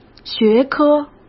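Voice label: "学科", Mandarin Chinese; chinese flashcards; hsk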